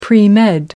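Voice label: "premed", English, British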